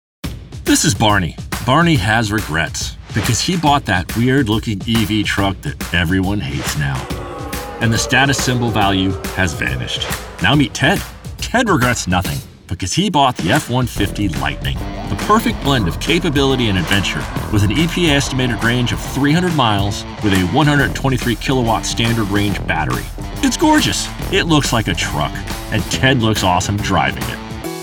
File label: EXPLAINER